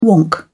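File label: "wonk", British English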